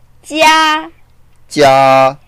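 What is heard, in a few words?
"jia1" Mandarin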